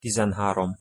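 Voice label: "tizenharom", Hungarian pronunciation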